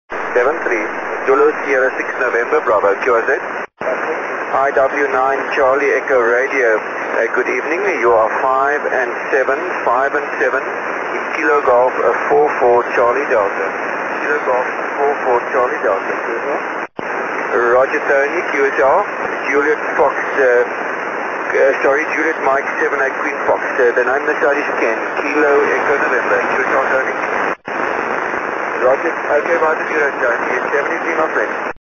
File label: on 6m SSB